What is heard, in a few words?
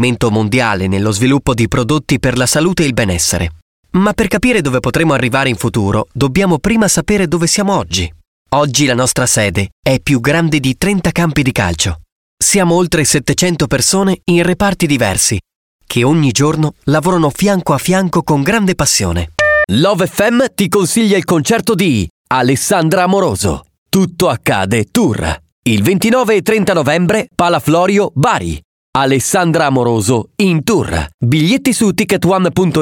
italian voice over male commercial